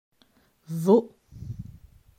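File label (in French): "Vos", French; grammaire; adjectifs possessifs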